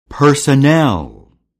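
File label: "personnel", English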